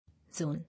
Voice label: "Zone" English

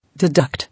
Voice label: "Deduct", English

